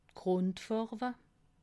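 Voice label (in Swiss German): "grundfarwe", Alsatian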